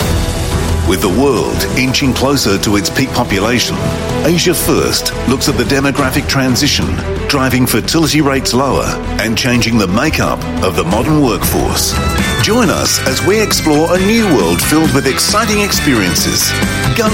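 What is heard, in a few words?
Australian English; CNA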